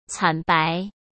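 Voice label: "惨白", Mandarin Chinese; hsk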